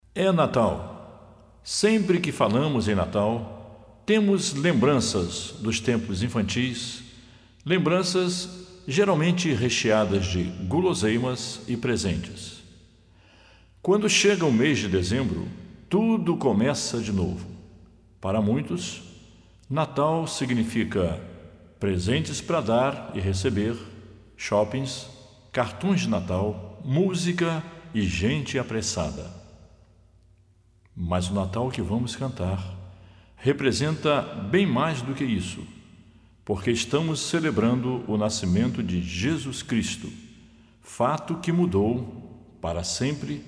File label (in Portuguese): Narração